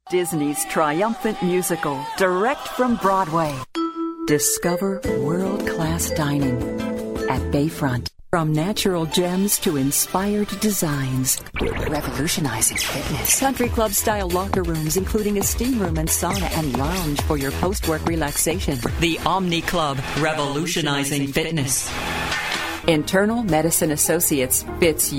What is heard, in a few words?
Commercial